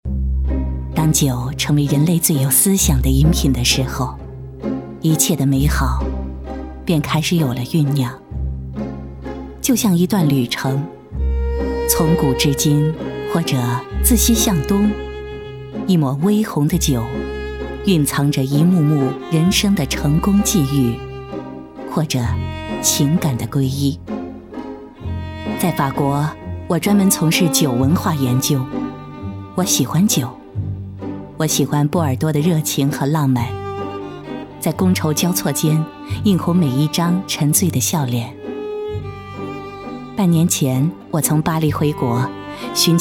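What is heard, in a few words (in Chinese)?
女酒广告宣传; 国语女声配音; 吉马国际宣传样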